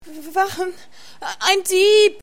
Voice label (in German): aengstlich Dieb; (ängstlich) Wachen! ein Dieb!